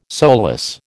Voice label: "solus", American

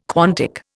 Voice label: "quantic", Indian

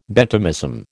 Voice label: "Benthamism", American

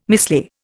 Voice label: "mislay", Indian

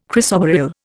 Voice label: "chrysoberyl", Indian

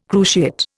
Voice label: "cruciate", Indian

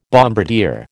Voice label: "bombardier", American

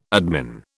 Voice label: "admin", British

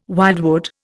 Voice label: "wildwood", Indian

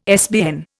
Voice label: "SBN", Indian

